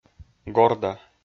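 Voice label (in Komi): "горда", Komi-Zyrian, male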